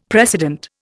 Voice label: "precedent", Indian; Dictionary